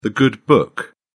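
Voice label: "good book", British English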